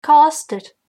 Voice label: where from, irish